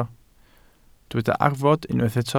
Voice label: "arfod", Welsh